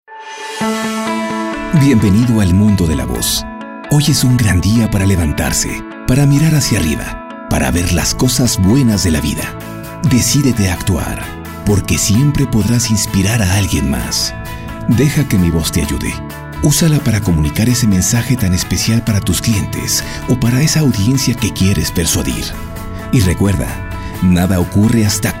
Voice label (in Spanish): locutor mexicano; mexico